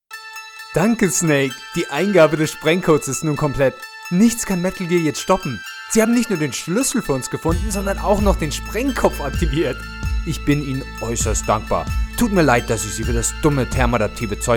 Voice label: german animation